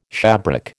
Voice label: "shabrack", American

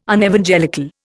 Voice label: "Unevangelical", Indian

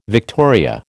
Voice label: "victoria", American

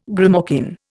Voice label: "grimalkin", Indian

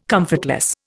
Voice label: "comfortless", Indian

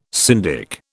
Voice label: "syndic", British

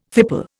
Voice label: "fipple", Indian

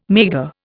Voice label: "maigre", Indian

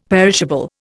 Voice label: "perishable", Indian; Dictionary

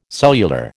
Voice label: "cellular", American

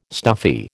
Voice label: "stuffy", American

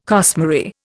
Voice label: "costmary", Indian

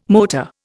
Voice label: "motor", Indian

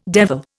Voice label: "devil", Indian

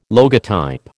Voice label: "logotype", American

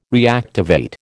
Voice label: "reactivate", American

